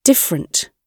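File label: "different", British English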